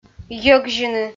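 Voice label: "йӧгзьыны" Komi, female; audio dict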